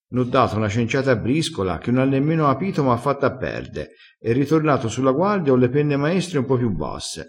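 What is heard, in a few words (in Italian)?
"da na cenciata", dialettale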